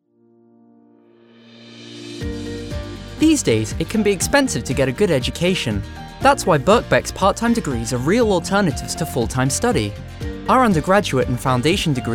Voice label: voiceovers